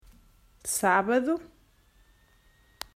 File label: "Sábado", Portuguese